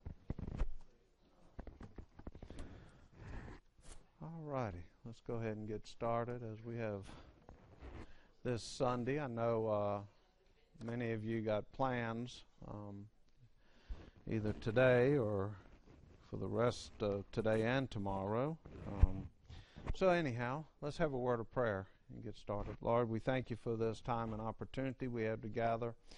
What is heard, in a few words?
English